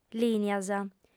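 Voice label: "lìnias", female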